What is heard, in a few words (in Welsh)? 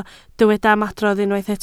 "ymadrodd", Welsh